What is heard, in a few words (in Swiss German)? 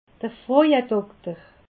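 "frauedokter", Alsatian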